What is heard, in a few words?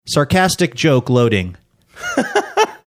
sarcastic joke loading